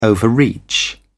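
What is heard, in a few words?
"overreach", British English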